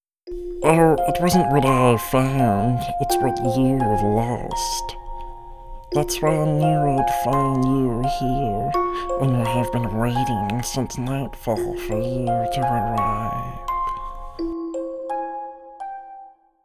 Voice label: VO Sample Creature